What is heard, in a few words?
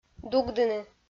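"дугдыны", Komi, female; kpv audio dict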